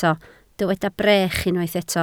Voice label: "brech", Welsh